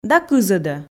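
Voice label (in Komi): "да кызӧдӧ", Komi, female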